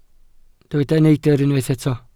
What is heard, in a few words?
"neidr", Welsh